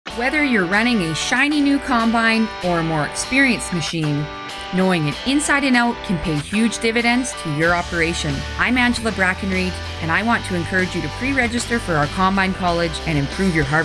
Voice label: Radio Ad